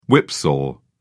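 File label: "whipsaw", British English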